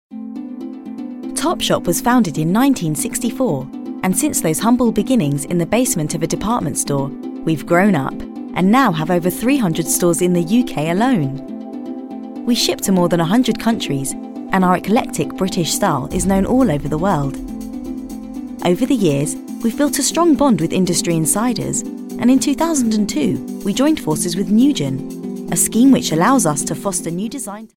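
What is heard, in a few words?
British English, female, informative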